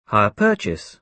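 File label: "hire purchase", British English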